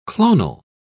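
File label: "clonal", British English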